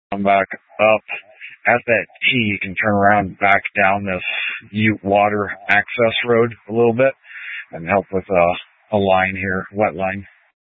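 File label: Incident Tac 2, Scanner audio recording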